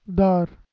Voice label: "daar", Balochi